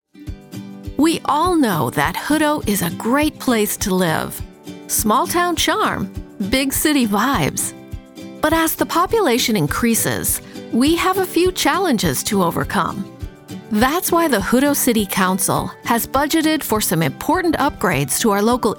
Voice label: Explainer